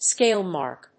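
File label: "scale mark", English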